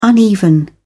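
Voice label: "uneven", British English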